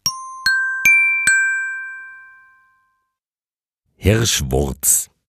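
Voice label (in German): "Hirschwurz", German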